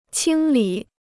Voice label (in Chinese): "清理", Chinese